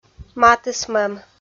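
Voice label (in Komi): "матысмӧм", Komi-Zyrian, female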